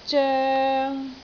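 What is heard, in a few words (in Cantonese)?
"zoeng3", Cantonese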